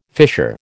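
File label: "fissure", American English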